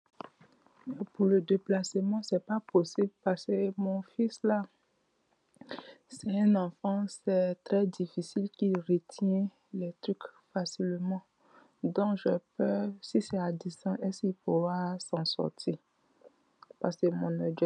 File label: voice message